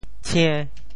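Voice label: "tshie~5", Teochew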